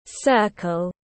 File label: "circle", school instructions